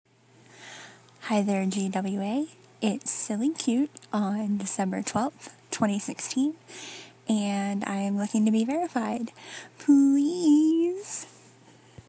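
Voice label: begging, female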